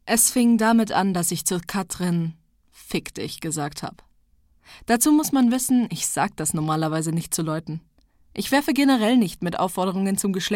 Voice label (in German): Hörbuch